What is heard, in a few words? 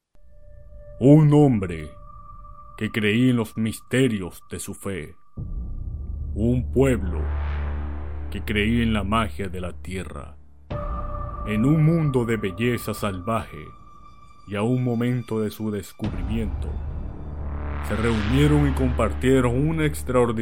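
Spanish male; 西班牙语; 外语; 小语种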